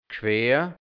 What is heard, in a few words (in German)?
"Gschwer", Hianzisch, Mundart, Burgenland; Geschwür